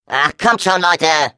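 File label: Defective Turret sabotage factory defect fail, German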